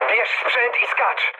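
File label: bailout, mood low, Polish speech; War Thunder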